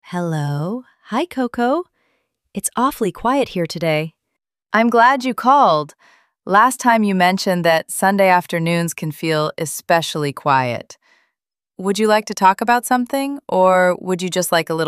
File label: Chat, voice companion